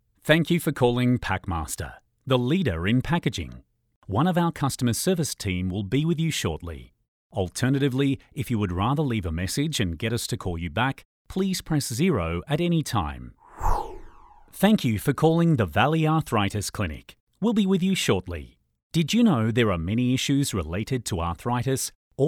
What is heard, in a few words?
Corporate Friendly